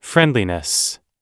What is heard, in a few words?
friendliness american